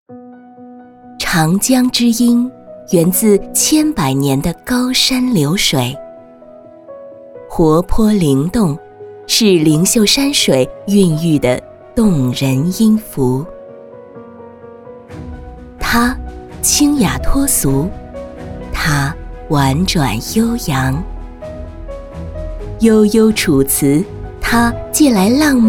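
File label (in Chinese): 国语 女声 专题片【温柔抒情大气】 大气浑厚磁性 沉稳 积极向上 亲切甜美; 个人短片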